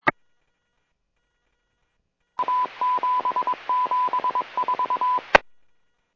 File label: Medevac, scanner; PHIAIREVACHelRad